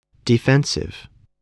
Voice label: "defensive", English